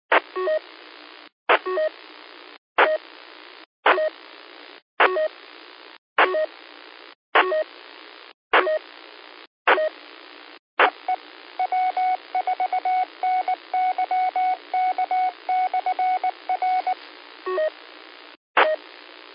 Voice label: qso rec simplex logic